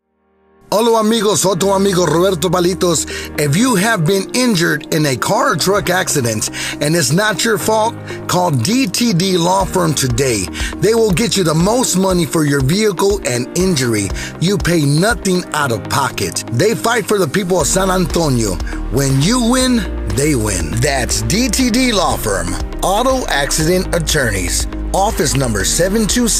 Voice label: LAWFIRM COMMERCIAL